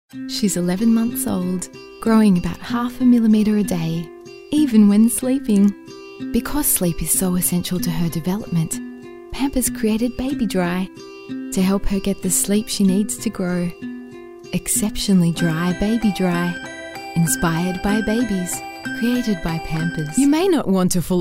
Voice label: english female voices british accent